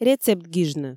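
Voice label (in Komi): рецепт гижны, Komi, female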